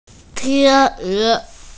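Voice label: "tian1e2", Mandarin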